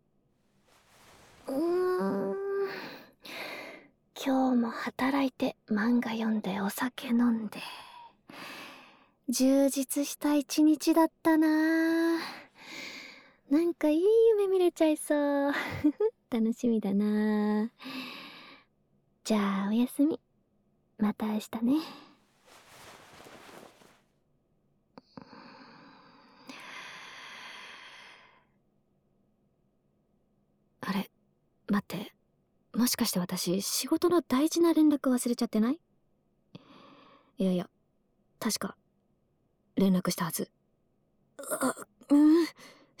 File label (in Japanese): お姉さんとぬいの添い寝